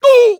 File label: DRIVER dialog; E3 740 DRIVER